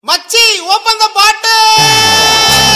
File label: Open the Bottle Loud Voice Ringtone